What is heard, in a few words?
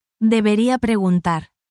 spanish, spanish phrases